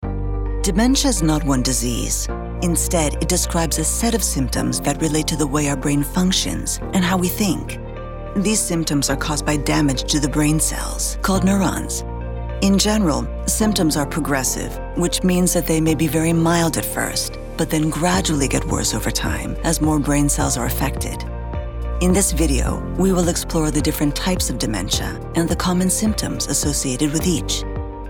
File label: Medical Explainer English